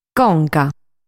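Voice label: "Conca", Sardinian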